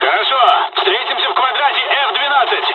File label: dialogs russian; War Thunder